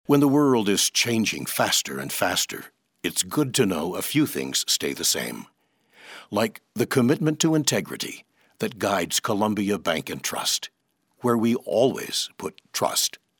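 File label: commercial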